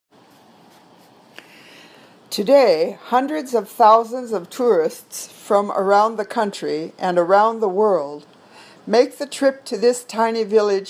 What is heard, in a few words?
Reading Drill